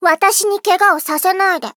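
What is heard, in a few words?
start, Japanese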